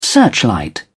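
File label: "searchlight", British English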